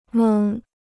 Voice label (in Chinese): "蒙", Chinese